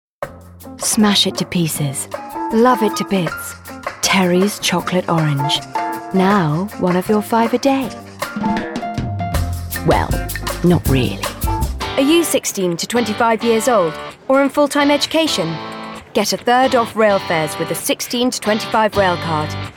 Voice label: Commercial, 20/30's RP/London, Engaging/Expressive/Light